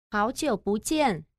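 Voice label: "hao jiu bu jian", Chinese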